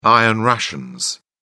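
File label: "iron rations", British English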